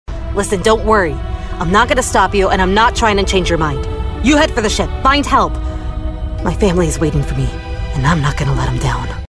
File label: Strong leader, video-game